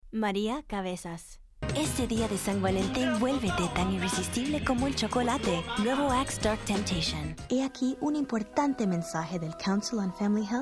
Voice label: spanish; languages and accents

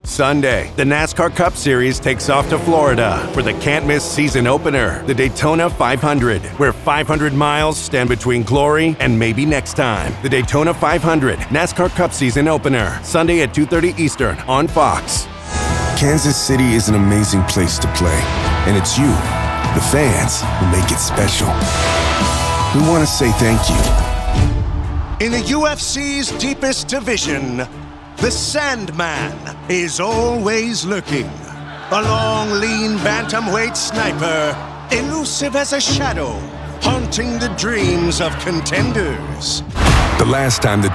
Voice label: promo men sports

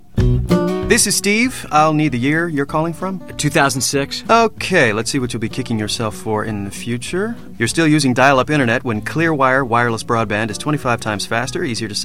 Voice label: commercial, commercial men

